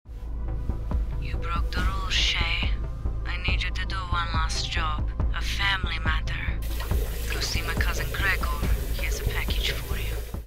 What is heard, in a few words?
Mob Boss Adult Deep, retail sample; Special Delivery